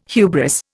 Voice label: "hubris", Indian